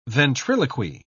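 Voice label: "ventriloquy", British English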